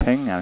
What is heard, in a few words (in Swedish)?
pengar, Swedish